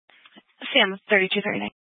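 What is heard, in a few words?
Police Dispatch, Scanner audio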